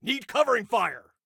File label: Need Cover, Soldier Male